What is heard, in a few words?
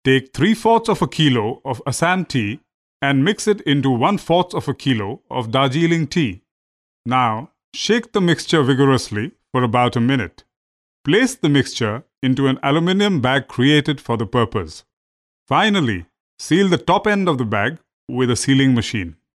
English, male voice